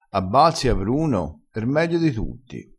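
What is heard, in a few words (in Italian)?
"meglio", dialettale crespinese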